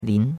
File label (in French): "lin2", chinois; Cours-de-Chinois